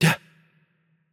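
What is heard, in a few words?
Hurt Light, Romanian; VO; bloom minigame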